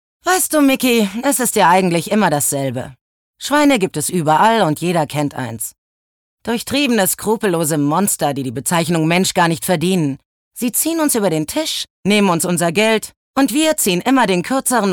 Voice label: Schauspiel Cool

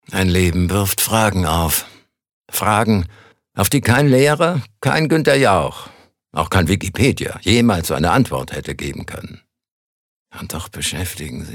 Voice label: Schauspiel, Cool

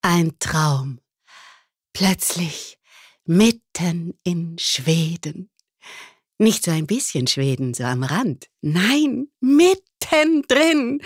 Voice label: Schauspiel Variabel

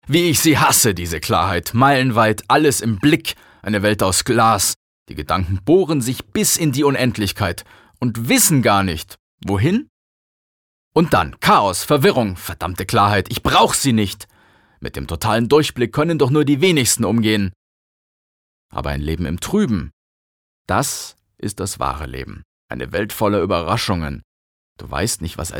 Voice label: Schauspiel Lebendig

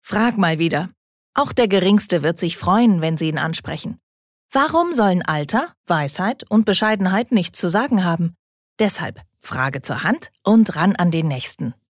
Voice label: Werbung Elitaer

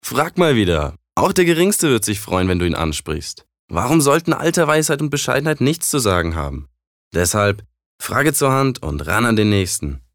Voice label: Werbung Dynamisch

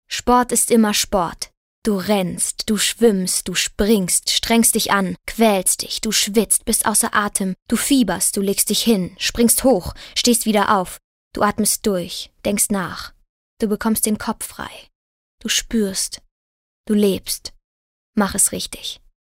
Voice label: Move Dynamisch

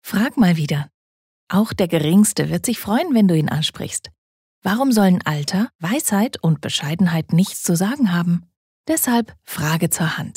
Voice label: Werbung Sanft

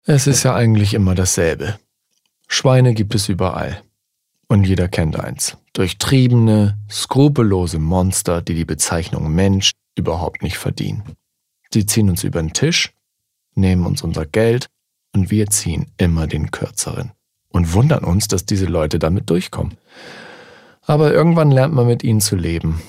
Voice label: Sanft; Schweine

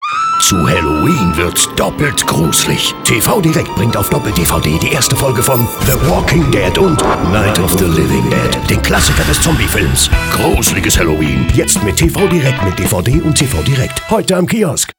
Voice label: Geheimisvoll

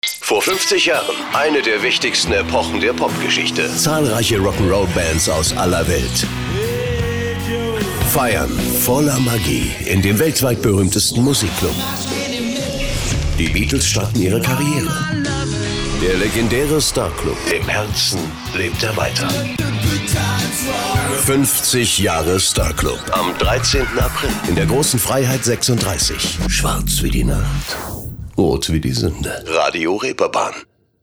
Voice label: Neutral

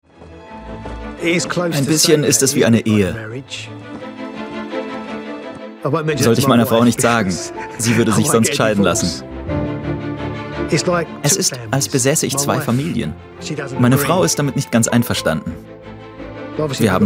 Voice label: Freundlich

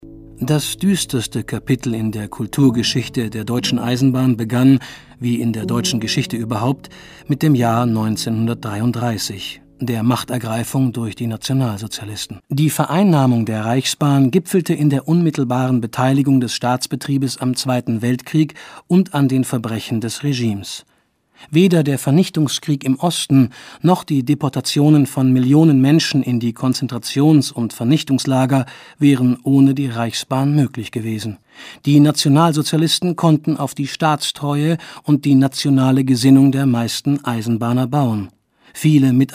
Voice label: Neutral

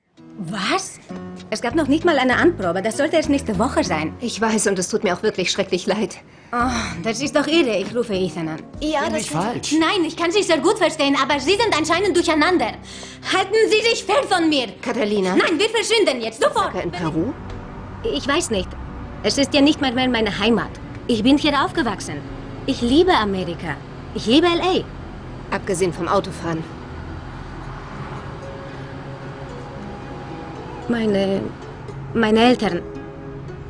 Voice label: Akzent Spanisch

